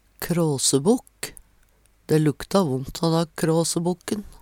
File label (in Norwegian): krasebokk, Numedalsmål